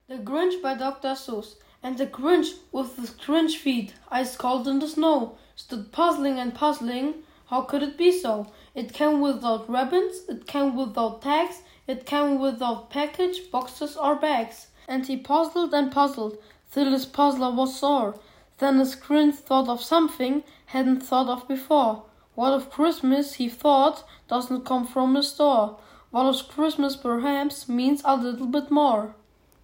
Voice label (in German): Durchsage